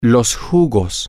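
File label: the juices, spanish, male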